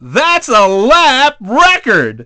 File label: dj lap fastest; DJ VOICE; Nintendo; July 2020 Gigaleak